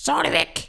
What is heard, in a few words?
"take cover", Danish; Worms Armageddon; Danish-Pyrus